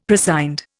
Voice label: "prescind", Indian; Dictionary